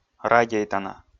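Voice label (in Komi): "радейтана", Komi, male; audio dict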